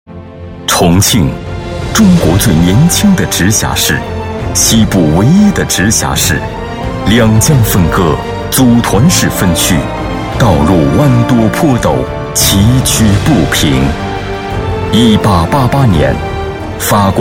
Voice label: 男, 专题, 大气风格; 重庆轨道交通

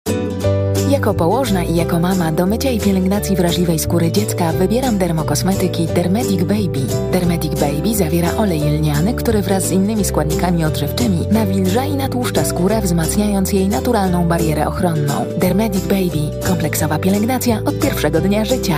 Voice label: 波兰语女 广告 舒缓温柔

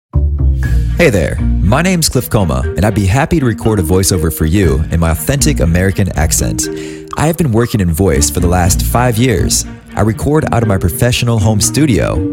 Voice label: 外籍英男, 自我介绍

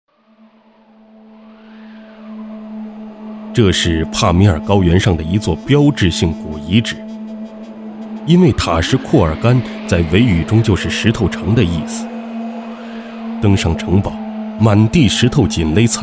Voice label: 男, 记录, 历史; 丝绸之路

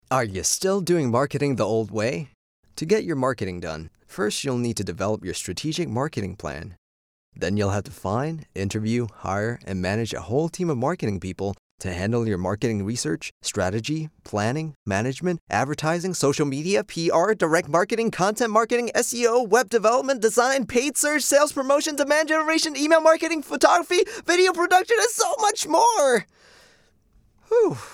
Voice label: 外籍英男, 市场营销广告, 年轻活力

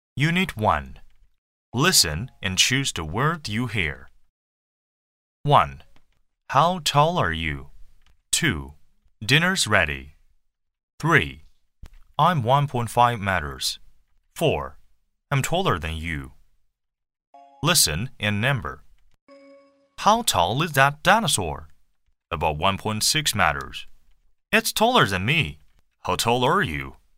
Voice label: 英文教材, 英语听力

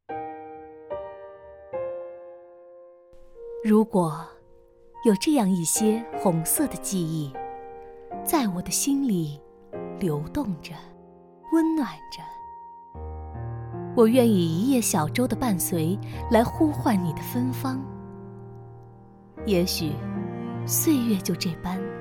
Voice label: 女 专题 解说 深情 古韵; 昌江木棉

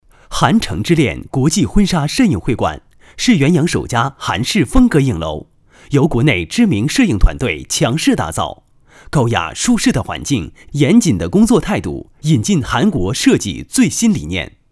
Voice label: 男 广告 摄影会馆, 解说; 韩城之恋摄影会馆

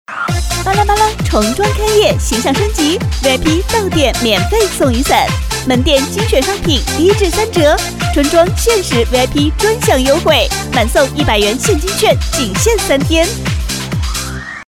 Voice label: 女78号 广告 音乐, 国语女声配音 年轻

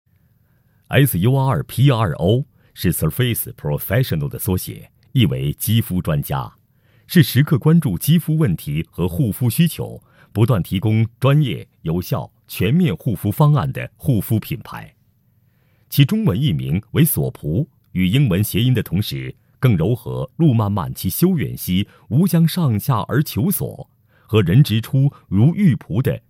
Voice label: 宣传片 化妆品品牌; 索璞化妆品品牌

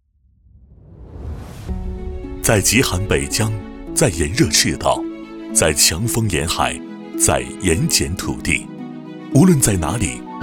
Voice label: 男 专题 交通 科技自然; 星志交通

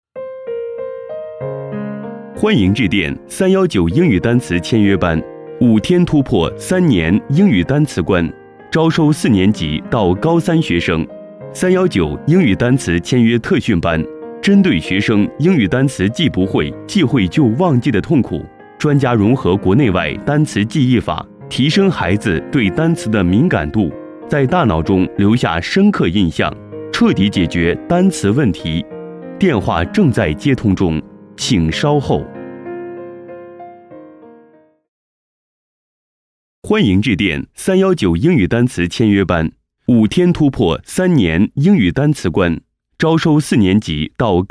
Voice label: 男 彩铃 英语单词; 319英语单词签约班

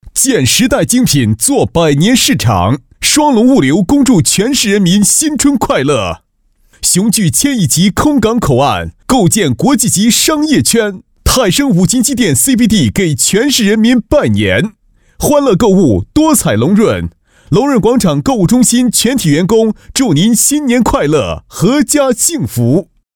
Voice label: 企业拜年广告

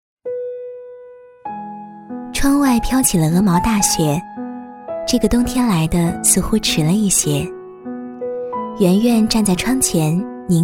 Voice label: 国语女声配音 独白; 沙画 独白 爱情 憧憬 旅游; 那拉提冰雪文化节

